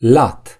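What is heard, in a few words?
"wide", Romanian; gcompris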